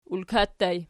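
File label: "ulka" ergative plural, Archi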